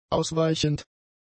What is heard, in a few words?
"ausweichend", German; adjectives